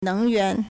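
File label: "neng2yuan2", Mandarin Chinese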